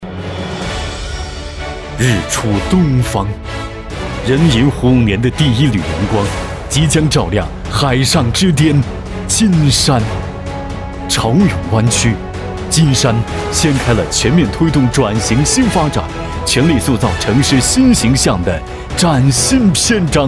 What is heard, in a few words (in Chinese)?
大气; 金山